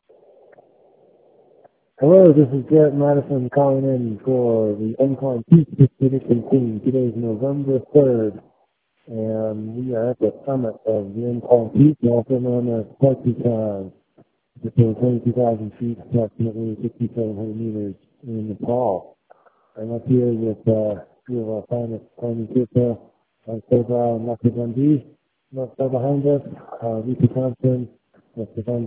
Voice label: voicemail